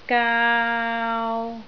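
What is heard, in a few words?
"gaau3", Cantonese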